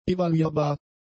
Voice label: "evaluierbar", German; adjectives

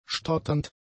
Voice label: "stotternd", German; adjectives

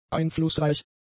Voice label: "einflussreich", German; adjectives

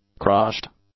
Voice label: "crushed", English; adjectives

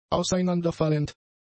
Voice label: "auseinanderfallend", German; adjectives

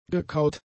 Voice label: "gekaut", German; adjectives

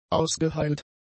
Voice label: "ausgeheilt", German; adjectives

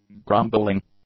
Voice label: "grumbling", English; nouns

